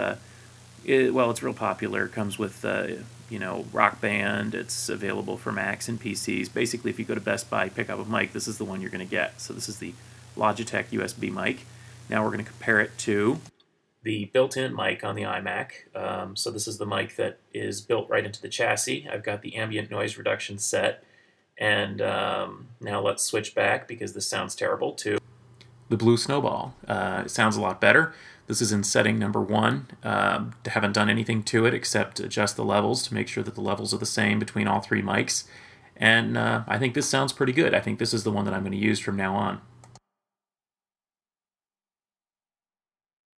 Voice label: Microphone Test; Blue